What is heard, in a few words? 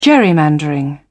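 "gerrymandering", British English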